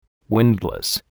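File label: "windlass", English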